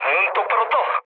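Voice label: Korean player friendly fire mood low, speech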